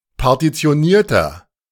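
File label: "partitionierter", German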